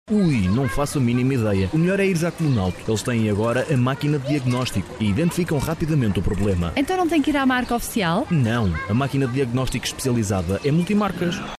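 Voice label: portuguese male commercial; voice demos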